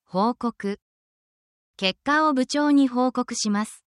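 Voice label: Japanese word, japanese phrases; essential japanese phrases for your workplace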